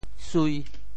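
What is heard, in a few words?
"sui5", Teochew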